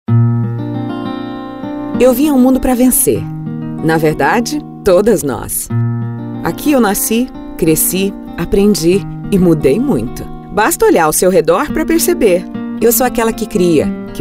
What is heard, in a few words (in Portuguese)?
demo conversado